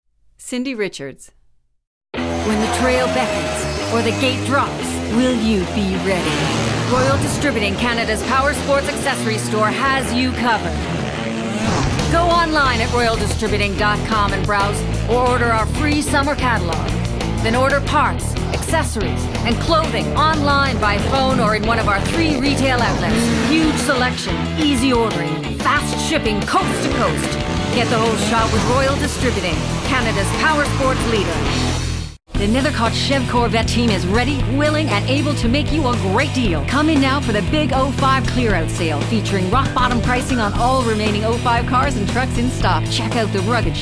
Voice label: Hard Sell